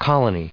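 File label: "colony", US English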